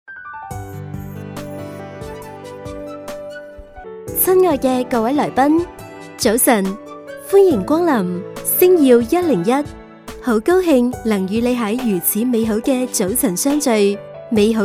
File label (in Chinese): 粤女-商场提示-中粤英; 星耀101